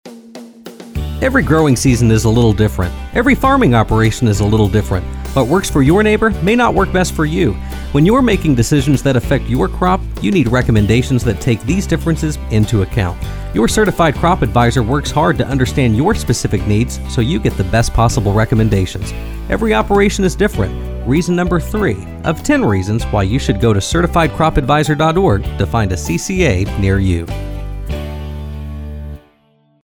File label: radio spot; certified crop adviser